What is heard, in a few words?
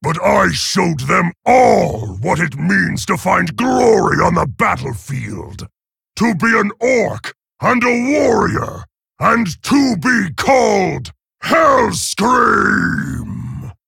Male Orc; Garrosh; Victory